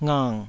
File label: "ngang3", taiwanese syllables